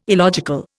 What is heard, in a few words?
"illogical", Indian